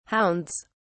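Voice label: "hounds", British English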